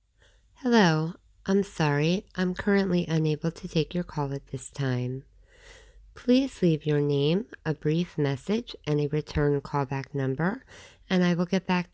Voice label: voicemail greeting